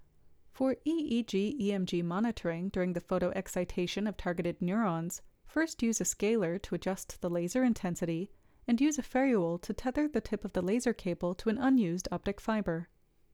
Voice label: Voiceover